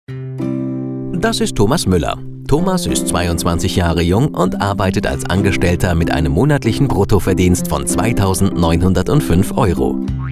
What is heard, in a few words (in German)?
Sprecher produktfilm; altersvorsorge